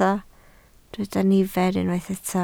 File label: "nifer", Welsh